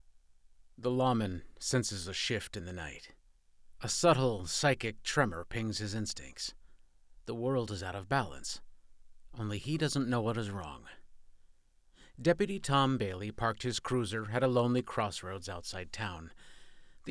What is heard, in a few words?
Horror, Male, Female, Southern